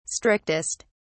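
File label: "strictest", English, British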